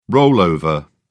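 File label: "rollover", English, British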